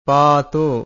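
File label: "paato", Pali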